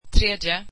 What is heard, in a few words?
swedish third